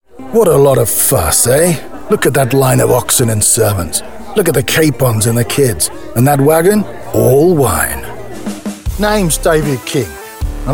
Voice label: character

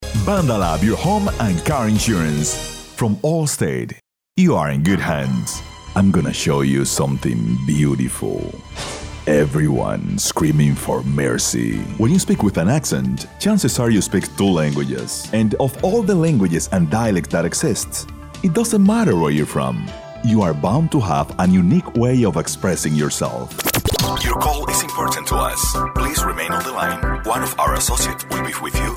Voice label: English

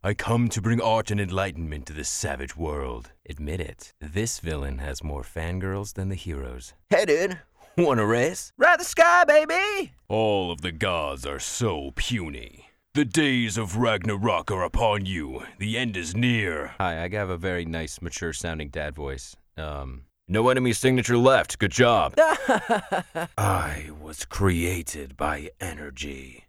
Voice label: character audio

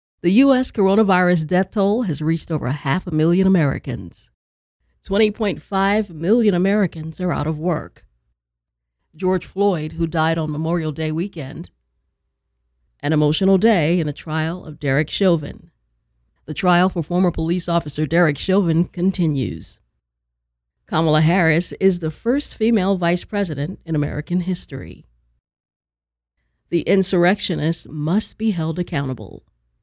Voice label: News Reporter or News Anchor

